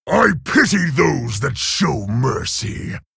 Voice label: Male Orc